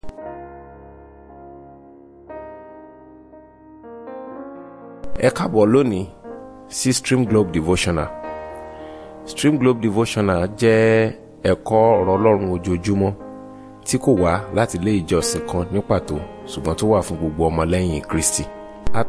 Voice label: Devotional Audio Yoruba